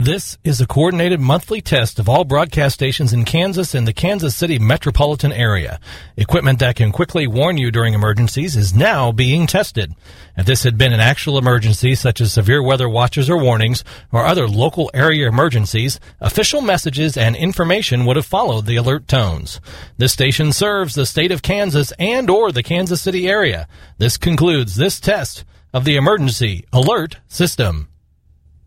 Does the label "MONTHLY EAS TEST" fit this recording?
Yes